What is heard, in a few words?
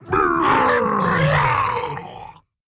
Male Ogre Death; Чо’Галл; Hearthstone: датамайн обновления 5.0